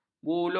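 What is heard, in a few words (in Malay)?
"bulur", Malay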